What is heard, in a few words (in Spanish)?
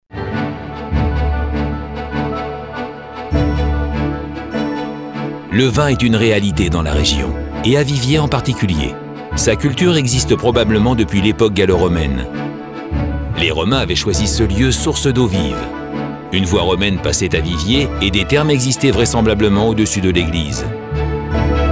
Masculino